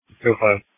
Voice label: Police Tactical Encrypted